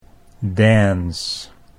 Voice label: "dance", Dutch English